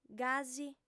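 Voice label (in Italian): "gasi", lingua sarda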